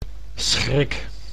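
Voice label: "schrik", Dutch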